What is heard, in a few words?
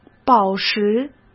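"宝石", Mandarin Chinese; hsk; chinese-flashcards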